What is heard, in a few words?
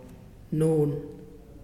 "Walk", Muyuw; Lae Unitech